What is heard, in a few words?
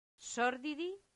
"sordidi", Latin; santillana